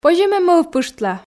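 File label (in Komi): позьӧ ме мӧвпыштла, Komi, female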